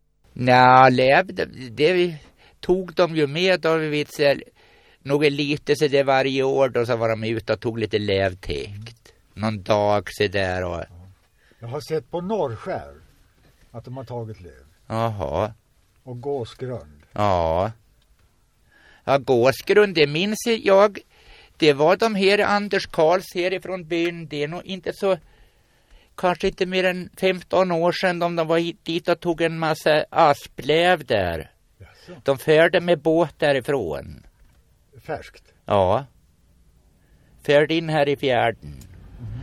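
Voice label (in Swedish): Gräsö Uppland, dialekter; Svenska sagor; Folkminnen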